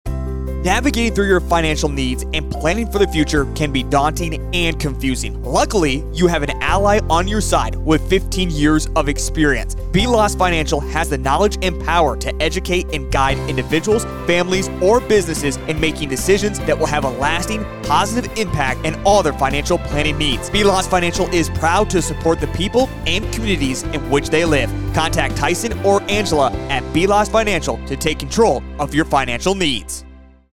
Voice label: Commercial; Bialas